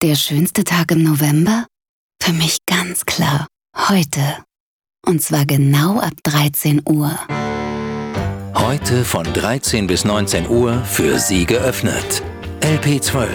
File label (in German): Sanft